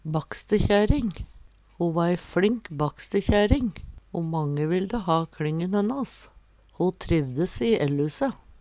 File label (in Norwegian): bakkstekjaering, Numedalsmål; ei fLink bakkstekjæring o mange ville ha kLingen hennas. Ho trivdes i ellhuse.